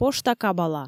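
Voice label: "пошта кабала", Komi, female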